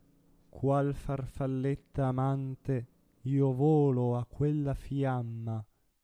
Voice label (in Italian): Qual farfalletta amante espressivo